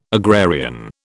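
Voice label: "agrarian", British English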